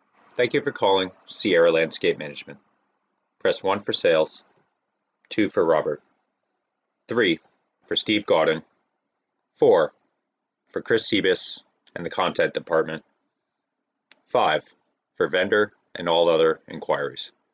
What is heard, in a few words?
Voice Mail